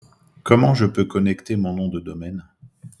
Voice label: voice message